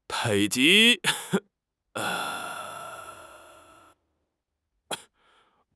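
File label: "北极", Chinese; HSK6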